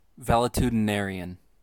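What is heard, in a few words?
"valetudinarian", US English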